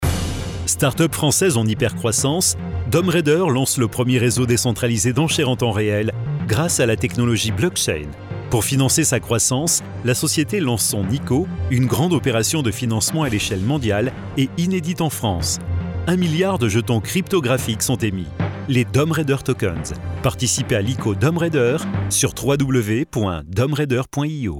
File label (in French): NOTORIETE VOIX OFF; pub